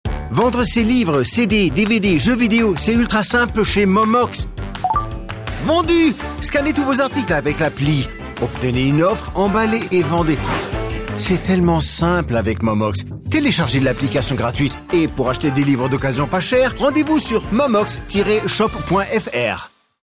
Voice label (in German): Freundlich, FR; Momox